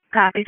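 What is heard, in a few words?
EMS Dispatch, p25; wake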